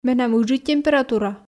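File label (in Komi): менам ыджыд температура, Komi, female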